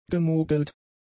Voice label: "gemogelt", German; adjectives